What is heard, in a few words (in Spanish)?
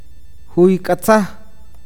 delgado, awakateko